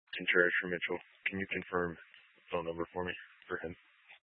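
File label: Sheriff Dispatch, Scanner audio recording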